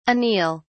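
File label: "anele", British English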